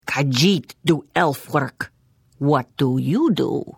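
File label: Hello, Khajiit female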